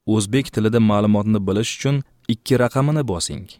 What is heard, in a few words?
Uzbek